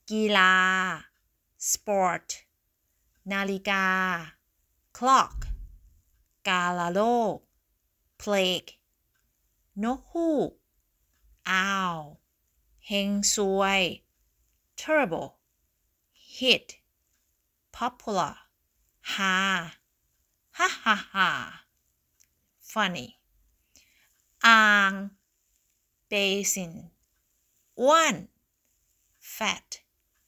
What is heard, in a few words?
Learning Thai words